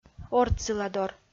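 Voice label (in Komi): "ортсыладор", Komi, female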